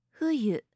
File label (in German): "fuyu", japanische maedchennamen